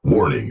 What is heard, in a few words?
warning, EN Legion Voice; Titanfall 2